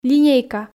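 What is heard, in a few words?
"линейка", Komi, female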